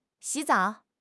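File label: "xi3 zao3", Mandarin Chinese; HSK3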